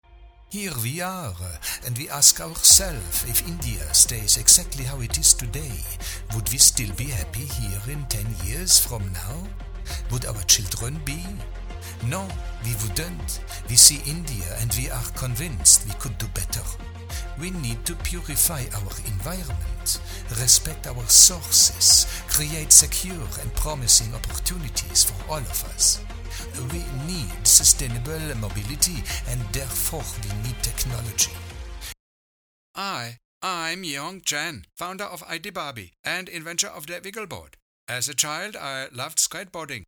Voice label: accents